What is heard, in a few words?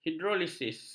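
"hidrolisis", Malay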